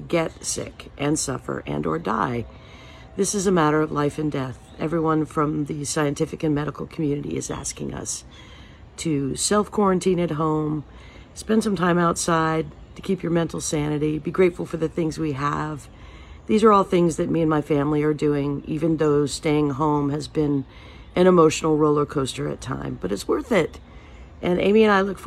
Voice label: public service announcement

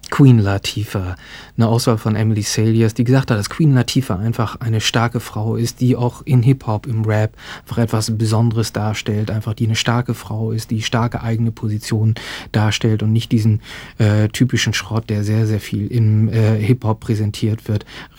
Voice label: german translation

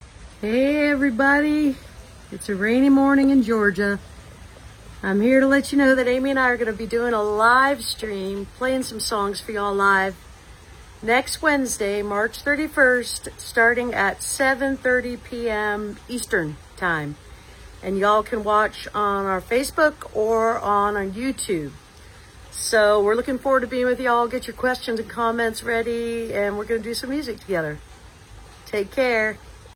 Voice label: promo

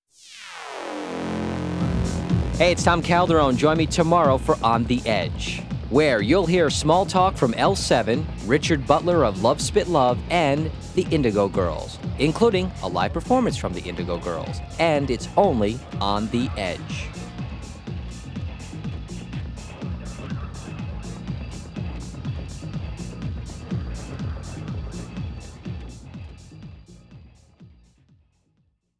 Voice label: promo

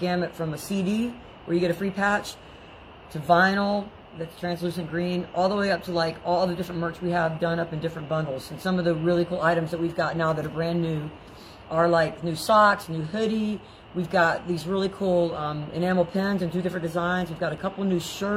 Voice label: album promo

